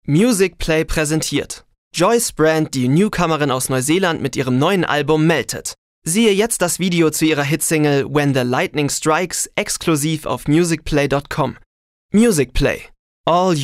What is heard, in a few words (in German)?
Dynamisch; Musicplay